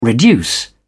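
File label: "reduce", English, British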